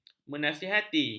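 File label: "menasihati", Malay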